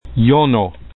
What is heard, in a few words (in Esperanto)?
"jono", Esperanto